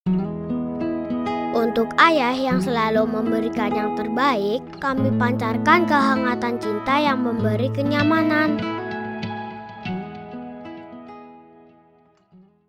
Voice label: INDONESIA CASUAL